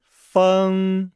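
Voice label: "feng", Chinese; Nihao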